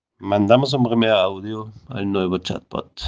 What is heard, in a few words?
Voice message